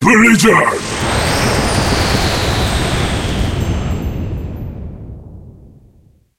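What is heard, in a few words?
skill blizzard enemy, English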